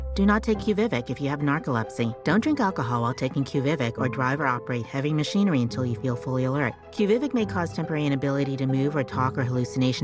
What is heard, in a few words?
commercial VO; QUVIVIQ; voice-over